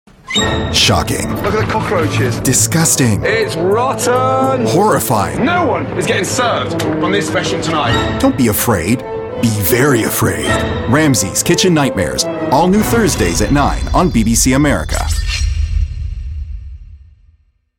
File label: promo; ramsays kitchen nightmares